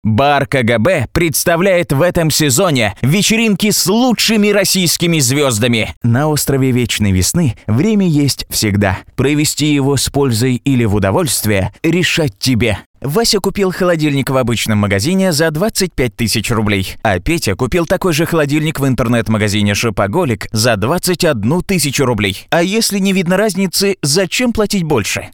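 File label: Russian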